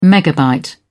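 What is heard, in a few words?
"megabyte", English, British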